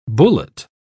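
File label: "bullate", British English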